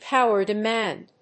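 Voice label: "power demand", English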